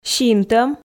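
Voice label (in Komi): "синтӧм", Komi, female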